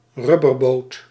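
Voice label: "rubberboot", Dutch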